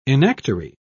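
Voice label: "enactory", British English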